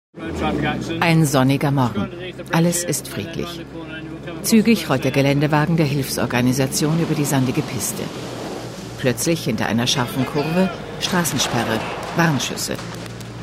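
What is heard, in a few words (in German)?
Feature, Sprecher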